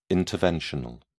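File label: "interventional", British English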